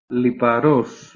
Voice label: "liparos", Greek